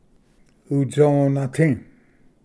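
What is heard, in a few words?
"utsonati", Cherokee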